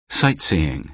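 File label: "sightseeing", American English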